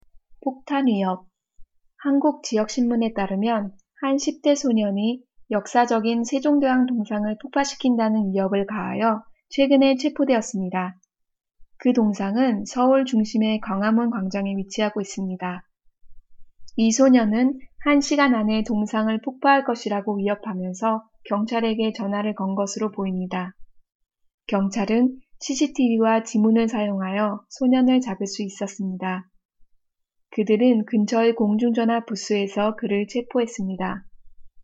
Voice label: Bomb threat Normal